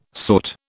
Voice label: "sought", British